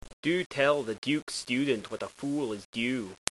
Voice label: due, scots